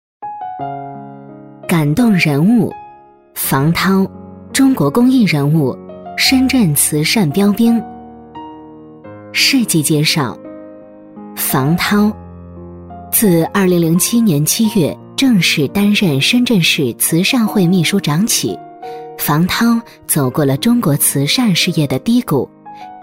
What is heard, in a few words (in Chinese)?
女 专题配音 感人; 中英双语; 成熟知性; 感动中国人物